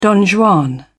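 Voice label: "don juan", British English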